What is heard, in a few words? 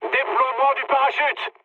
bailout mood med, speech fr; War Thunder